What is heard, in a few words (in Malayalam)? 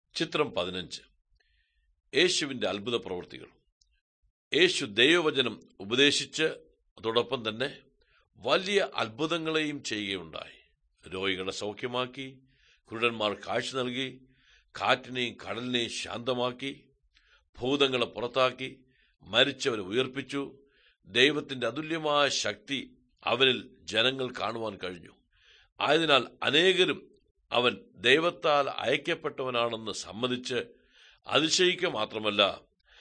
good news malayalam, drama